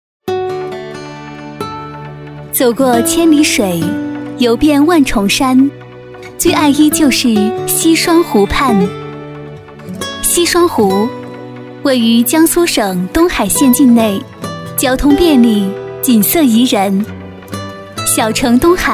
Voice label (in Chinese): 女 专题 宣传片; 东海名郡宣传片